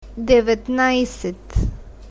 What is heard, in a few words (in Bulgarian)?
devetnajset, bulgarian, numbers